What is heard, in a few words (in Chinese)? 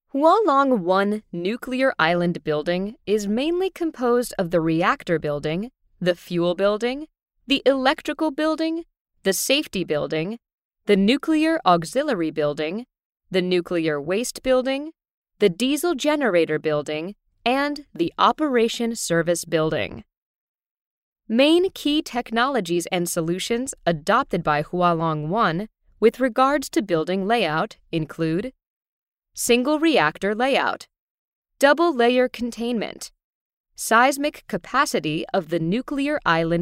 外籍女 工程讲解